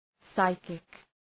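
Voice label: "psychic", English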